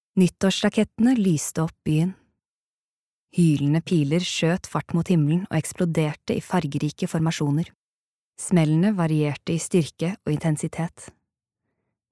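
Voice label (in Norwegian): lydbok, Norwegian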